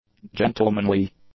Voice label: "gentlemanly", english; adjectives

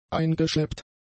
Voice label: "eingeschleppt", German; adjectives

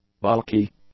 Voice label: "bulky", English; adjectives

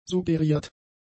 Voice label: "suggeriert", German; adjectives

